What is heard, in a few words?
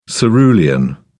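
"cerulean", British English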